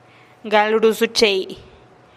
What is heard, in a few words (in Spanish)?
"gal ruusuchei" adornar, teotitlan